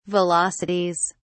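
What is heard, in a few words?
"velocities", British English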